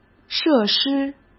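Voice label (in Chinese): "设施", Mandarin Chinese; hsk; chinese-flashcards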